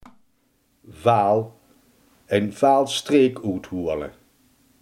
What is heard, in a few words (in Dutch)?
"vals", limburgse